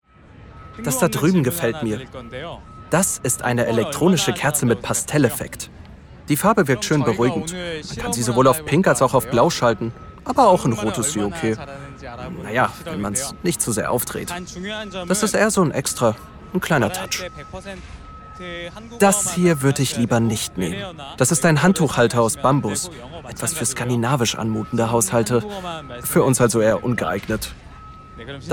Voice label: Voice Over Inspiriert, German; LOFT VOICES

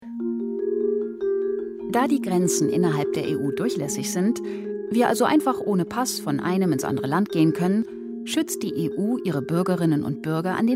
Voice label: kindersendung; drk

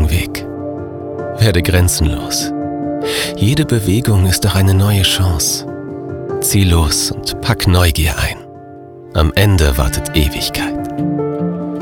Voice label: Sprecherdemo Abenteuer

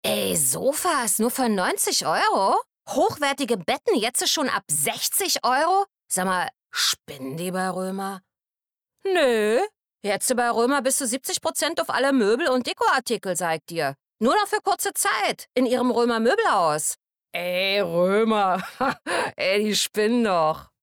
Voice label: Moebelhaus Berlinerisch

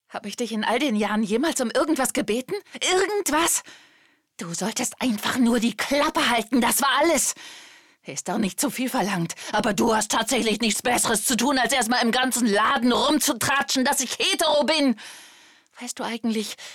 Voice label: synchron

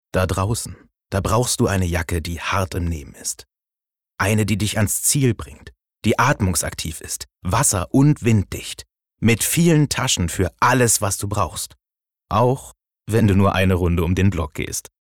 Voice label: Werbung Outdoor Jacke

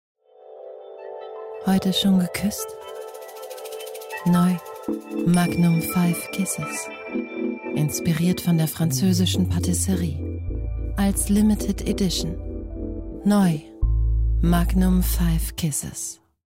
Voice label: Werbung erotisch

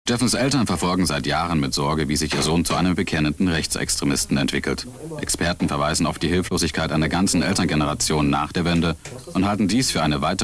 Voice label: kommentar

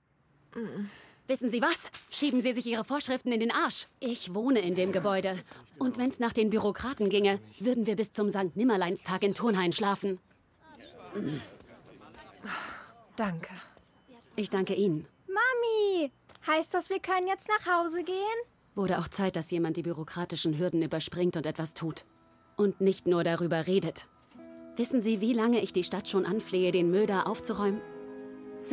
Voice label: Anime Synchron; Invincible

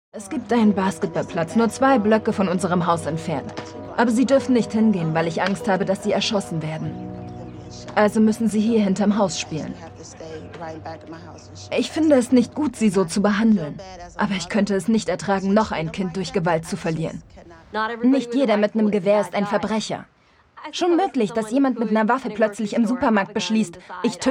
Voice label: Stimmprobe Voice Over; Under the Gun; LOFT VOICES

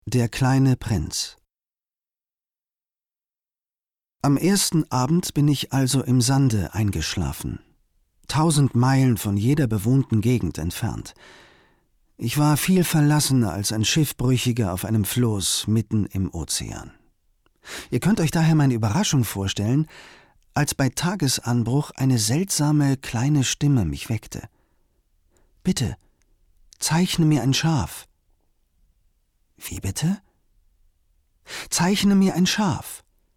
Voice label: erzaehlung

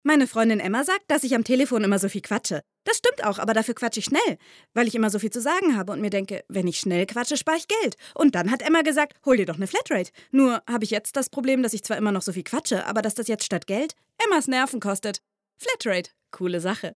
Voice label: Flatrate Werbung

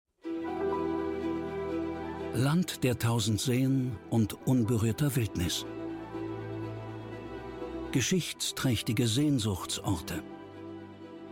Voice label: Mit dem Zug durch den Norden Polens Voiceover Kommentar; ZDF

